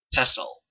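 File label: "pestle", Canadian English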